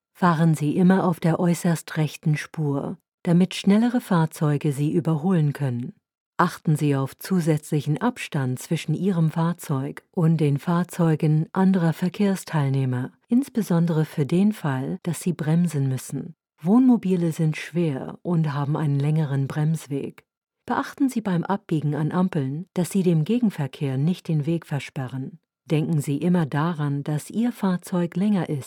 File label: German e Learning